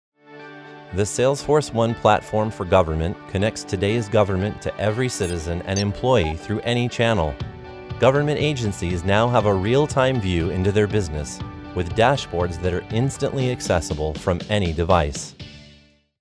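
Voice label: explainer video, narration; Government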